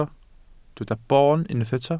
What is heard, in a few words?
"boon", Welsh